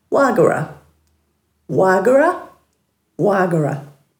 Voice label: "waagura", Dhurga